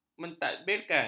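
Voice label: "mentadbirkan", Malay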